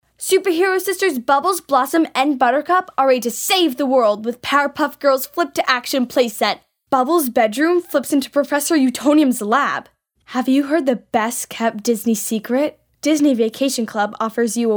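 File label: Youth, vo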